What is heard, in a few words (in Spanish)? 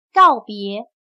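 "告别", chino mandarin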